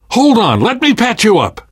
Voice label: heal other, left4dead2 survivor voice namvet; source engine